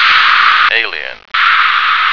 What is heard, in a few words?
"alien", American